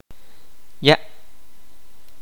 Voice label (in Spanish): "agua", Kaqchikel